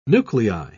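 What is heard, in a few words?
"nuclei", British English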